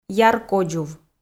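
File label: "яр кодзув", Komi, female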